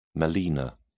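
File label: "melaena", British English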